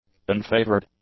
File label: "unfavored", english; adjectives